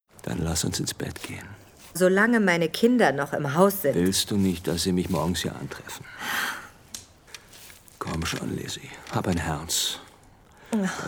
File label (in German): Hoerspiel; Hoerspiel XBerg; John; Der Handel; Liz